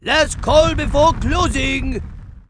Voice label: Pandaren brewmaster fire pissed